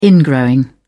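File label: "ingrowing", British English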